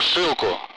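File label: Speech Sound Russian Common Allied Flight; AirDefence; Zeus (ZU-23-4); DCS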